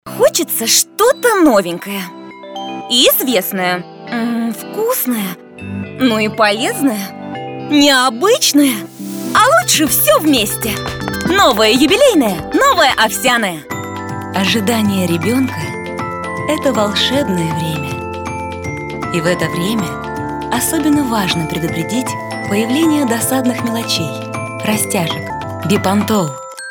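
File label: Russian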